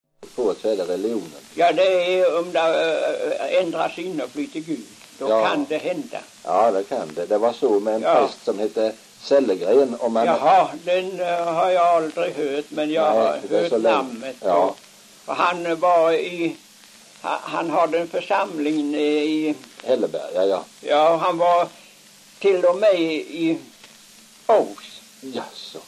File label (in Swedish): dialekter; Folkminnen; Svenska sagor